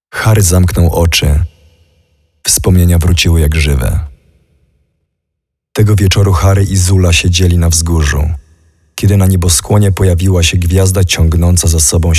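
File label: Voices VO Polish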